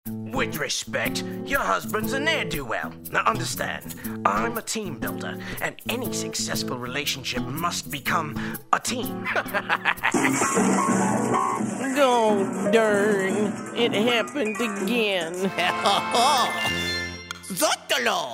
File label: Animation